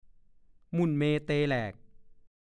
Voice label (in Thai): "มุ่นเมเตแหลก", ภาษาโคราช; แหลกละเอียดจนไม่มีชิ้นดี